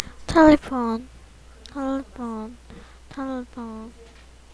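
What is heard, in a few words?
"telephone", spoken word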